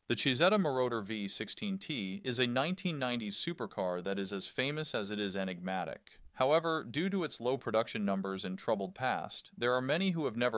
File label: Description Voiceover; 1991 cizeta moroder